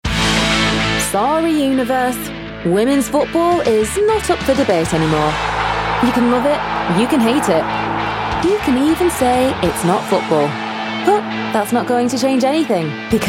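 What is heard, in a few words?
lancashire voice documentary, Lancashire Female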